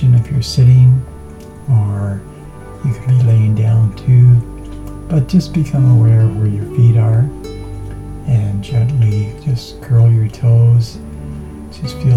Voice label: meditation belly breathing soft